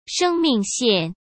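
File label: "生命线", Mandarin Chinese; chinese flashcards; hsk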